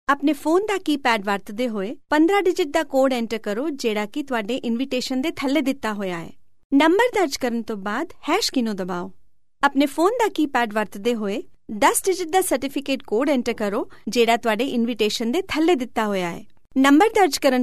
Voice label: punjabi